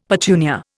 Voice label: "petunia", Indian; Dictionary